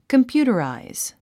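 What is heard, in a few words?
"computerize", English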